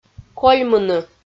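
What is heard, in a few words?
"кольмыны" Komi, female; audio dict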